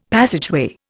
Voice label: "passageway", Indian; Dictionary